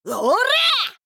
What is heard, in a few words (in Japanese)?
「うおらぁ！」, 女の子 オラオラ系少女 戦闘ボイス